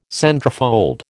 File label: "centrefold", American